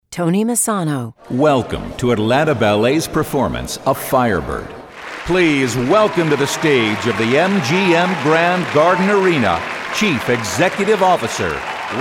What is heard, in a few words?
Live Announce Large Venue